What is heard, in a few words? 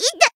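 Voice damaged Japanese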